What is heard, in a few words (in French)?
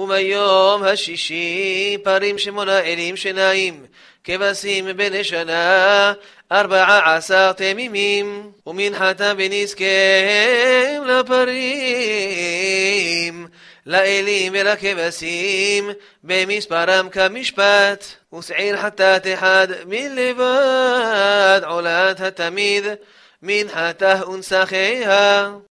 paracha de souccot marocain; 6e jour